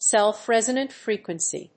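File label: "self resonant frequency", English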